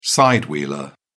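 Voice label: "side-wheeler", British English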